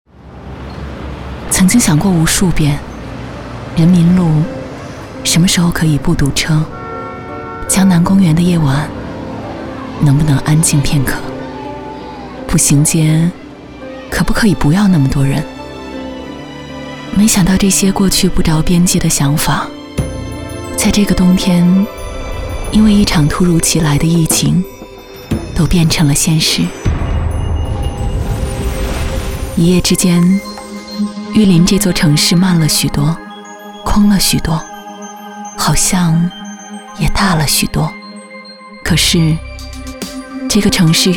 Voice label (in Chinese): 女 独白; FM992; 重新出发